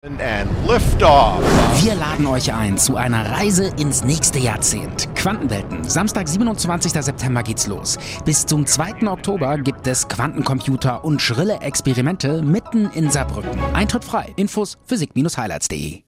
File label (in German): Stimmprobe Werbung; Stimmproben Sprecherstimme